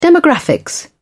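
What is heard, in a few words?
"demographics", British English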